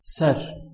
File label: "ser", Kurdish